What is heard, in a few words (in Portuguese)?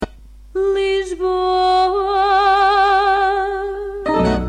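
"lisboa", Portuguese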